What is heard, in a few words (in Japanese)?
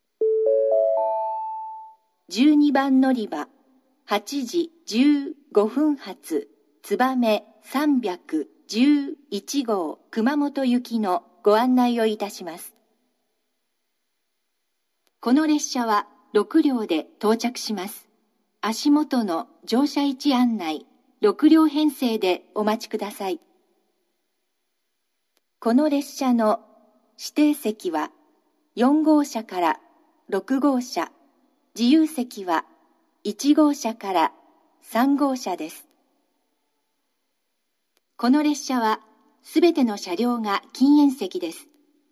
12番のりば案内放送; 新玉名; つばめ311号 熊本行き